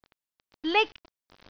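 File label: "lik1", Cantonese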